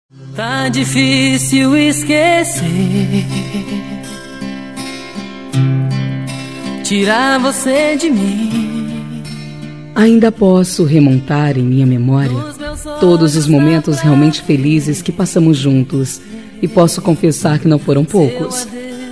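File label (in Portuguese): Rom para o ex, feminino; Telemensagens; Românticas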